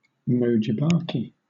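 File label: "venomous", British English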